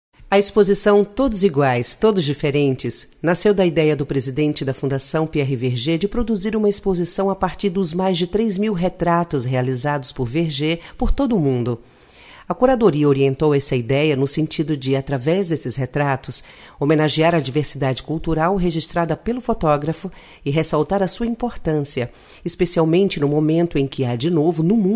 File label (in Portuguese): locucao